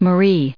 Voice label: "marie", us

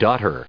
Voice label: "daughter", en us

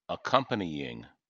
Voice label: "accompanying", us

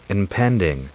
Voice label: "impending", us

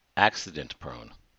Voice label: "accident-prone", us

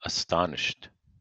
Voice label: "astonished", us

